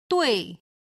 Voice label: "dui4", Chinese

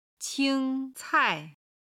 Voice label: "qing1 cai4", chinese

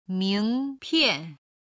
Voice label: "mingpian", chinese

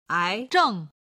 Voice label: "aizheng", Chinese

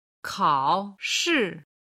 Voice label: "kao3shi4", Chinese

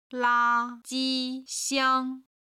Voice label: "la1 ji1 xiang1", chinese

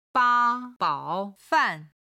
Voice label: "ba1 bao3 fan4", Chinese

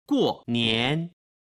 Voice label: "guonian", Chinese

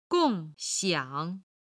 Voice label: "gong4xiang3", chinese

